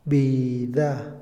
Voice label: "violín", Otomí, hombre; Habla; INALI

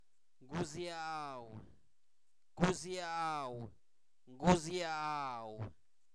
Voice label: Zapoteco Albarradas, santo domingo albarradas; rayo; fenomenos naturales; INALI

